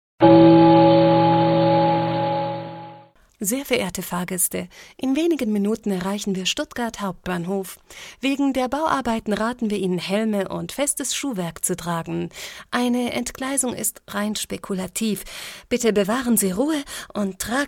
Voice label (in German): Ansage